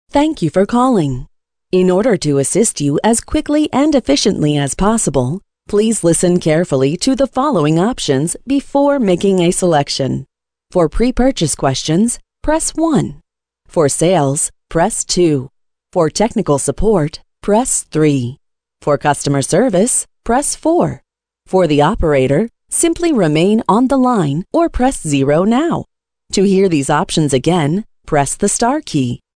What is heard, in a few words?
female ivr